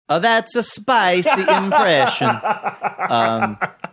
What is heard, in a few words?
saying thats a spicy impression bad italian accent